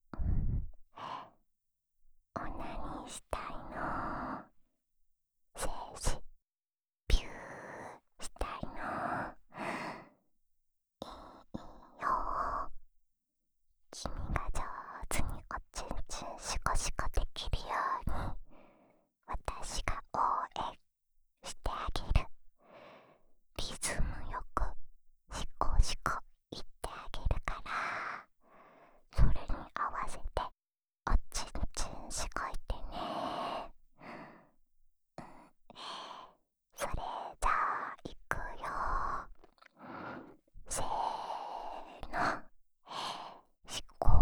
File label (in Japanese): オナサポ用シコシコボイスループ（右耳, ASMR